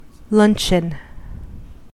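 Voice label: "luncheon", US English; pronunciations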